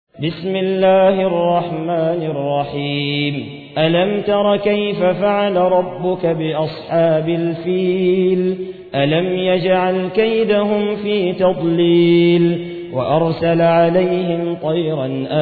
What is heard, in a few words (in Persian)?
ترتیل سوره فیل